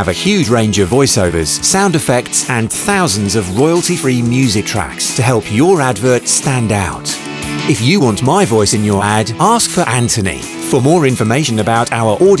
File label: Radio TV; Voice-over demos; TV Radio Advert